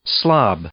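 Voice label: "slob", English, male; noun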